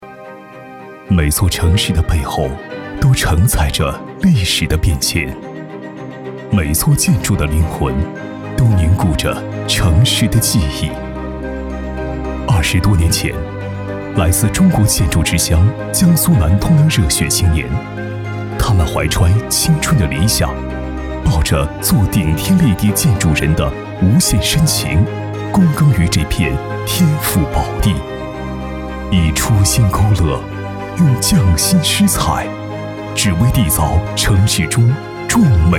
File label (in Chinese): 男 走心 城市背后